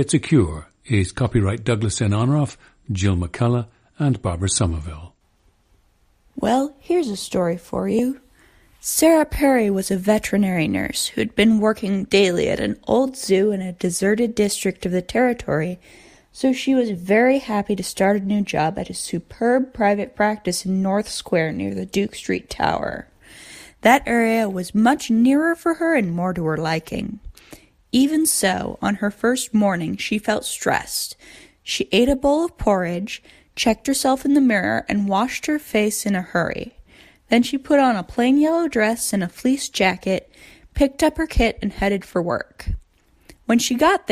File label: Autism Spectrum Disorder